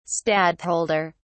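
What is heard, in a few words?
"stadtholder", British English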